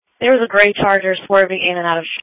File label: Sheriff Dispatch